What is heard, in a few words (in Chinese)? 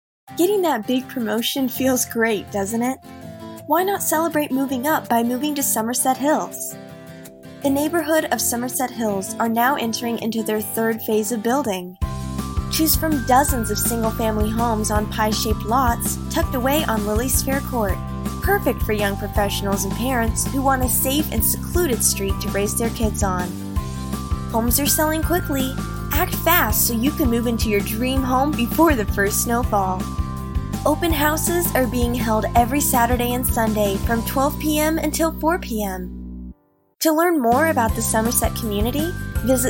外籍女英 外语 美式英语 旁白 讲述